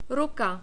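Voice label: "ruka", czech, male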